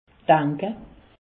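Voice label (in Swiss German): "danke", Alsatian; medecine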